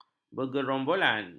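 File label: "bergerombolan", Malay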